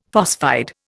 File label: "phosphide", Indian; Dictionary